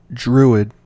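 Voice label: "druid", US English; pronunciation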